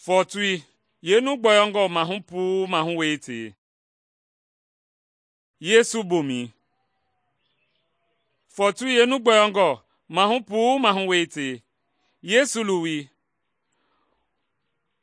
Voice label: mende bsl nt drama; good news mende kpa

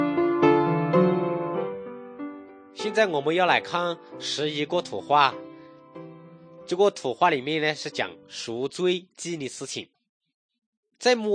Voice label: good news shan, drama

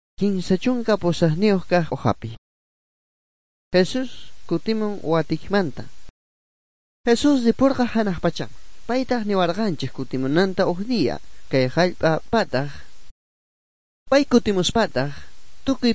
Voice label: good news quechua bolivian sucre; bible

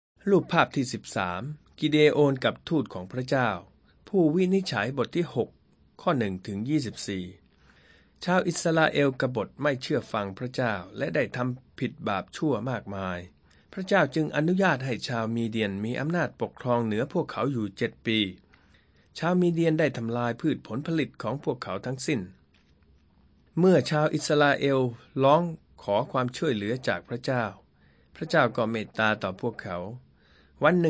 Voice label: thai central

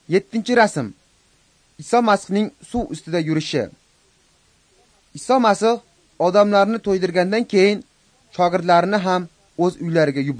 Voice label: uzbek northern

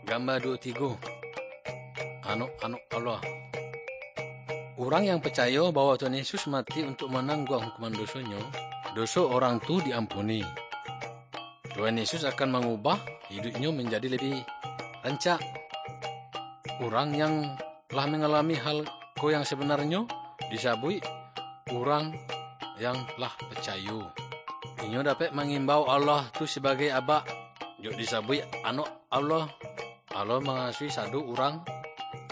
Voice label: good news minangkabau muko muko; kaba ilo

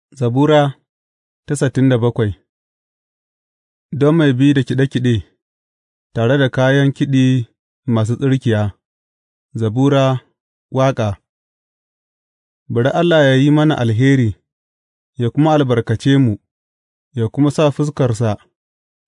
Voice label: hausa bible drama, psalms; hausa bsn nt drama

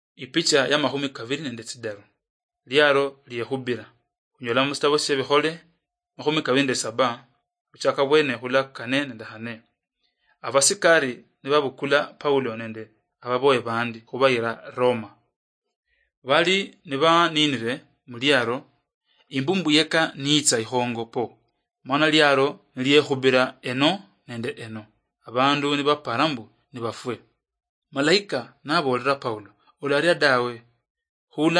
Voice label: acts of the holy spirit luyia marachi, drama

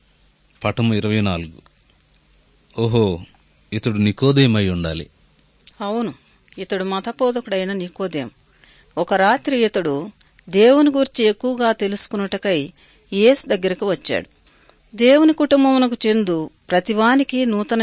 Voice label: good news telugu

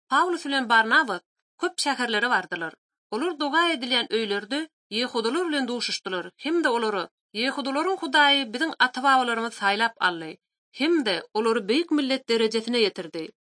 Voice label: turkmen, bible translation drama

